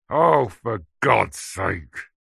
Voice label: human male grumpy angry frustrated says for gods sake; zapsplat